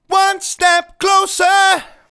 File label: "One Step Closer", vocal phrases; Ultimate Vocals